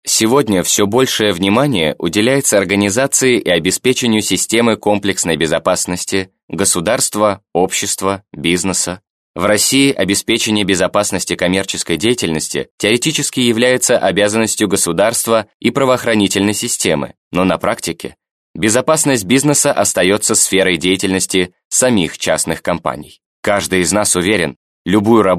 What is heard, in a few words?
russian male